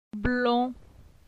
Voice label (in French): "Blon", phonetique; la syllabe on